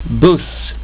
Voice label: "buss", Swedish

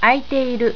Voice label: "aiteiru", japanese

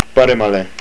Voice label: Right, estonian

